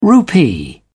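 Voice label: "rupee", British English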